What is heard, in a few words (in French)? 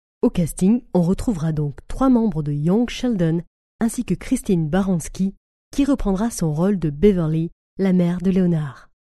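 French Female sample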